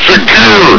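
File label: "secure!", male; hl2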